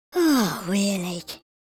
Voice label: sad, Geordie, speech

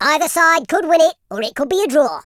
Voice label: first blood, Footy Fan

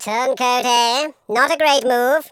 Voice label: "traitor", Patronising

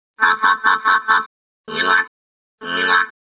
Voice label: happy; Cyberworms

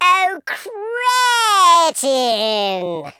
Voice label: "oi nutter", Patronising speech

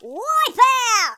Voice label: uh oh; speech

